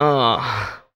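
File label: "uh", male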